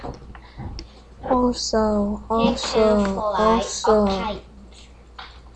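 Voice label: "also", spoken word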